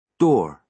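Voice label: "door", American English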